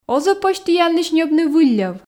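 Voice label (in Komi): оз-ӧ позь тіянлысь ньӧбны вылльӧв, Komi, female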